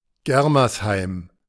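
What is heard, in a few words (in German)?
"Germersheim" German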